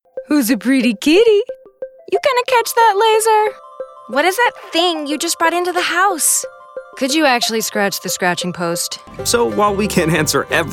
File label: Commercial, Female voices